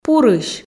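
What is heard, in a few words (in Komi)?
"пурысь", Komi, female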